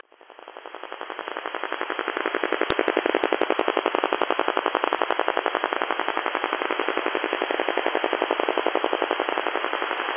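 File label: French AM; 15160,0; ALG; TDA Ifrikya FM; Berkaoui-Ourgla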